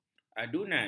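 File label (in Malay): "adunan", Malay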